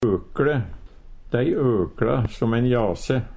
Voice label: "økLe", Numedalsmål; Verb

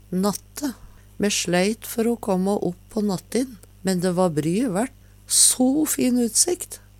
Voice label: natte, Numedalsmål

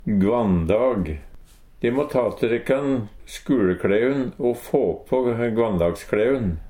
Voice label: "gvanndag", Numedalsmål; De må ta tå dikkan skuLekLeun å få på gvanndagskLeun.

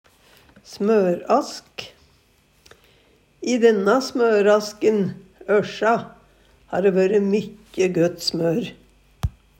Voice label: smørask, Numedalsmål

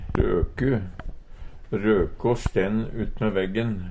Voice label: roku, Numedalsmål; Røko stend utve veggen.

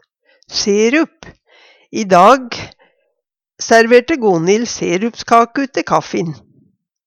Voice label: "serup", Numedalsmål; Sirup er svært søt, men vart gjerne brukt som pålegg i gamle dagar.

